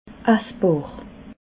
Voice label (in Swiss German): "assbar", alsace